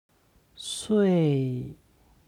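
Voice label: "sui", Chinese; 岁; 歳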